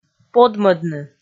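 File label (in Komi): "подмӧдны", Komi, female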